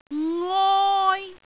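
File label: "ngoi1", Cantonese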